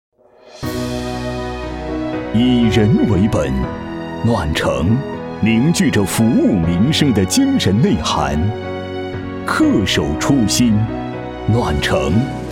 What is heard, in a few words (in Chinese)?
男, 专题汇报, 舒缓, 暖; 城为民; 在健康